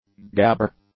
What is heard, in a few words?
"gabber", English; nouns